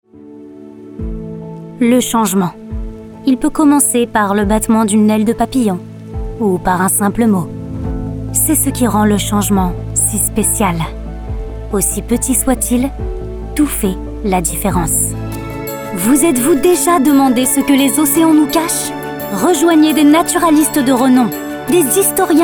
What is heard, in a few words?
Documentary demo